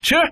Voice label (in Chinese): mj chi, man